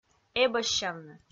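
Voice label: "эбӧссявны", Komi, female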